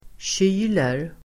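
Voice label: "kyler", Swedish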